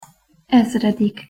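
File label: "ezredik", Hungarian pronunciation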